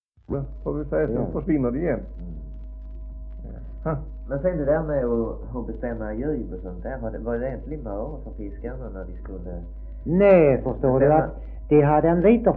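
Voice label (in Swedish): Degeberga Skåne, svenska sagor dialekter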